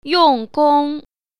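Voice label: "yong4gong1", Mandarin Chinese Tone Pair Drills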